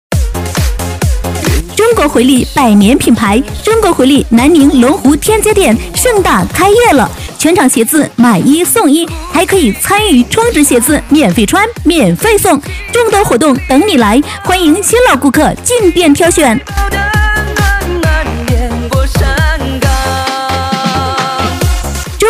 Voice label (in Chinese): 女 促销; 中国回力